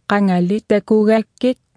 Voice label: long time no see, greenlandic

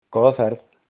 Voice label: bon voyage, icelandic

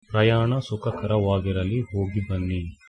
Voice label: bon voyage, Kannada

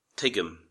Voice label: understand, irish

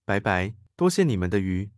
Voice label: "so long", Mandarin; 拜拜，多謝你們的魚; Chinese (Mandarin)

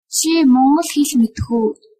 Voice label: do you speak, mongolian

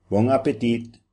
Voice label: bon appetit papiamento

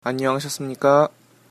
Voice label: how are you, korean; 안녕하셨습니까?

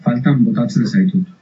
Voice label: i miss you, basque

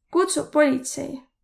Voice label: police, Võro

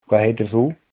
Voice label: name, Icelandic

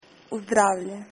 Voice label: cheers, hr

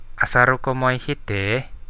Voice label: do you come here often, Central Dusun

